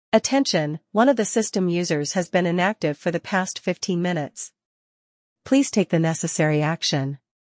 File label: alert voice